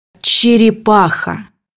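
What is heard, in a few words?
"turtle", Russian; bukvar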